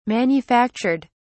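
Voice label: "manufactured", English, British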